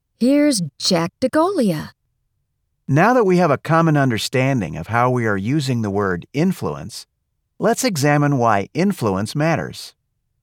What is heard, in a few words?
eLearning